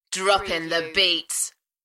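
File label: UK female, DJ vocals; Droppin the beats; Party / Dance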